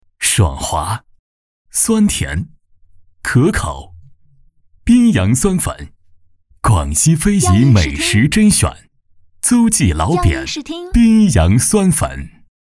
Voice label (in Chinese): 男 广告; 宾阳酸粉